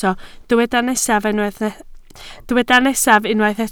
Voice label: "nesaf", Welsh